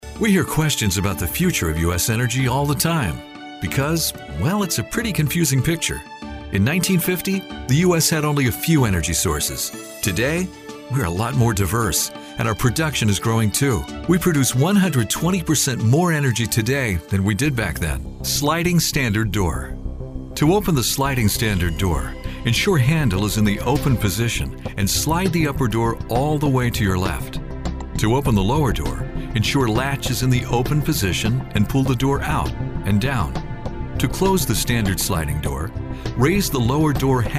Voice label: Explainer